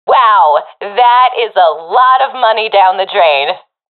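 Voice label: round lost, professional female; vo; Counter Strike Model Pack; CS:GO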